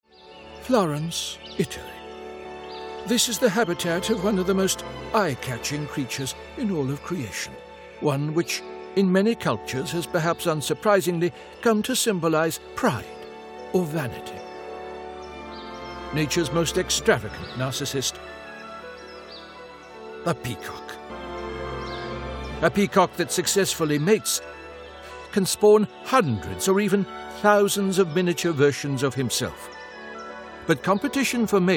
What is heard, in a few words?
Character Pastiche